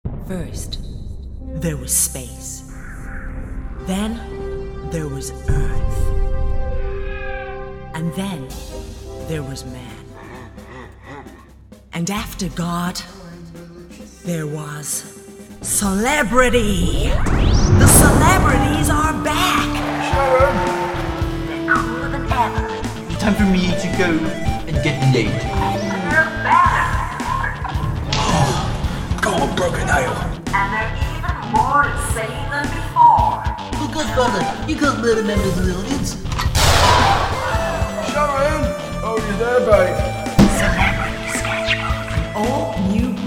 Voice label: promo; celebrity sketchbook series 2